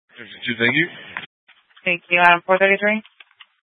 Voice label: Sheriffs Office, scanner